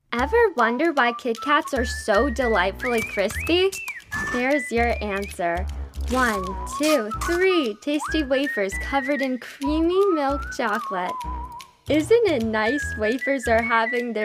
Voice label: Voiceover